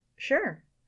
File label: girl sure; Inseminator